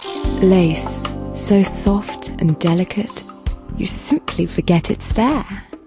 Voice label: English British others